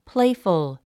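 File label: "playful", English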